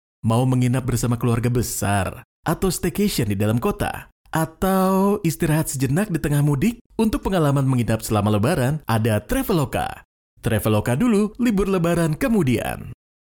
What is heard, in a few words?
CASUAL INDONESIA